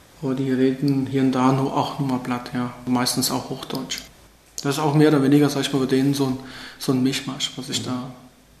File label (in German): giessen interview, sprachdynamik